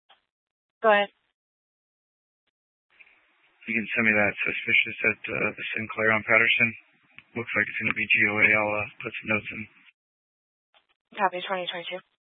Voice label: Police Department Dispatch, scanner